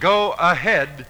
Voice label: "go ahead", male

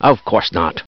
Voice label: "of course not", Voice; expletives

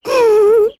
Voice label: "ooh", Voice

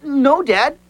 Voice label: "no dad", Voice; expletives

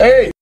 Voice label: "hey", Voice

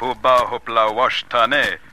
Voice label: hooplah booplah washtanay, Voice gibbrish

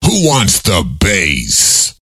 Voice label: who wants the bass, voice questions

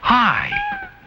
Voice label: "hi", male; Voice; expletives

